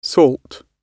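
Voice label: "salt" British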